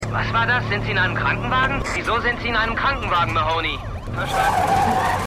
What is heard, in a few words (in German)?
Funkstimme; Marvel's The Punisher